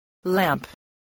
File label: "lamp", English